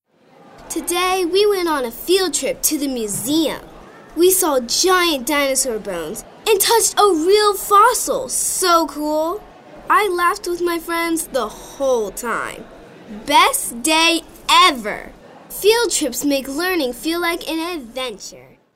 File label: Field Trip, kids